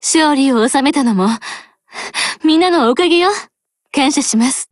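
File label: WIN Japanese, Voice; GF Alarm; FG42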